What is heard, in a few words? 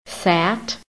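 "sat", American English; PHONETIC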